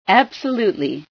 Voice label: "absolutely", female